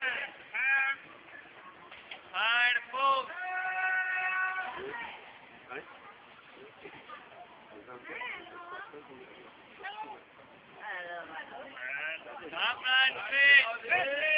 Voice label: Walthamstow market call pound a bowl